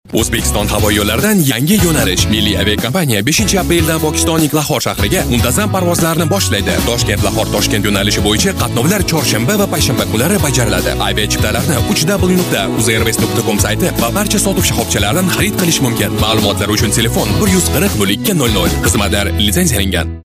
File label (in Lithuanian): (VYR) Uzbeku balsas; vyras